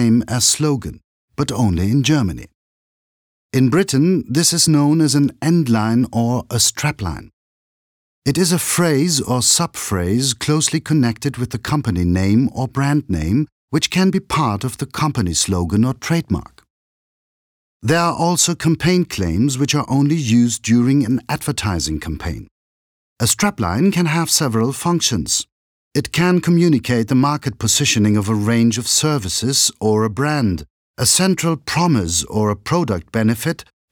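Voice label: British English, Neutral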